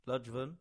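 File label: "Ludgvan", English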